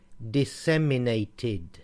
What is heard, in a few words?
"diseminated", English